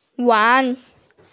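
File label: "wan2", Mandarin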